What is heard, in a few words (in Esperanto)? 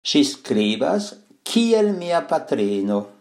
"sxi skribas", Esperanto; frazoj